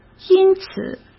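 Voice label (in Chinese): "因此", Mandarin Chinese; hsk; chinese-flashcards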